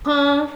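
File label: "con", Nigerian Pidgin, female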